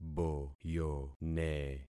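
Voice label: "boyone", concatenated syllables; Affixing experiment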